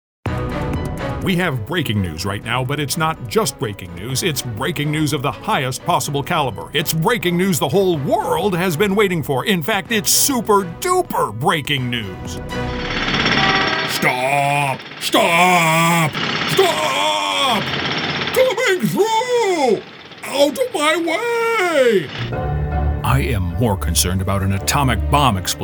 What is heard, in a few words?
CHARACTER